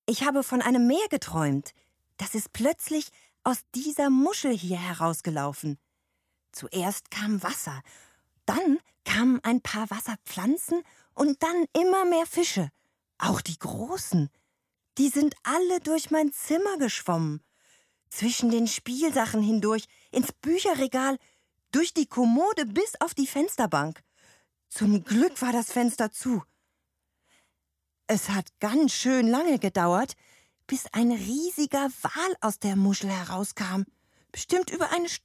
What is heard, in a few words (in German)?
Monolog